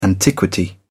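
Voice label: "antiquity", British English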